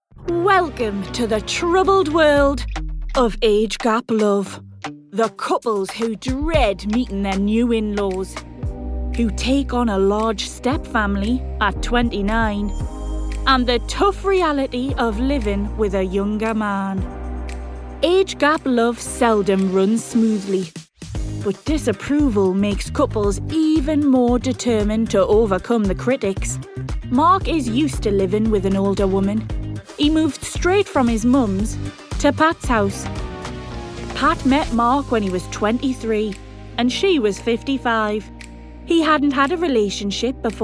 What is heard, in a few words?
Narrative